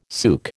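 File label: "souk", American English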